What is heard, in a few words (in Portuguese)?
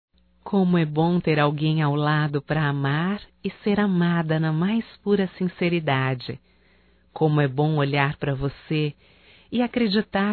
ROMANTICA(F), Românticas, fem